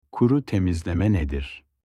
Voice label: dry cleaning in turkish

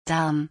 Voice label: Intestine in German

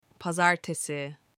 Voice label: monday in turkish

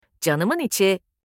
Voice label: sweetheart in turkish

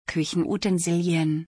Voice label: Kitchen utensils in German

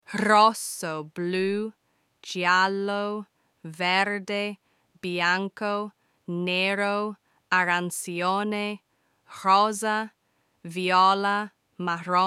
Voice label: Learning Colors in Italian

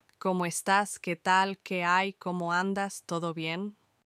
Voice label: how are you in spanish

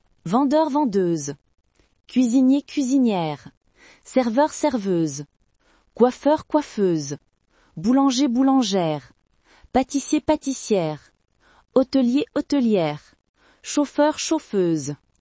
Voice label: job in french

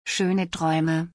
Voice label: Sweet dreams in German

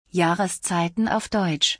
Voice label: Seasons of the year in German

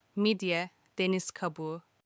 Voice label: shell in turkish

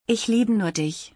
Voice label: I only love you in German

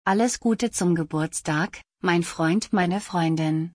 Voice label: Happy birthday my friend in German; تولدت مبارک به آلمانی

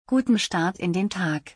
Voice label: Have a good start to the day in German

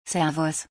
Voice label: Hello informal southern Germany in German

